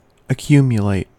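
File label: "accumulate", American English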